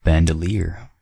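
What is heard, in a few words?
"bandoleer", US English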